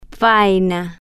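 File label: "vaina", Spanish, female, slow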